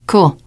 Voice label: you are welcome, teen girl